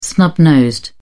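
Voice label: "snub nosed", British English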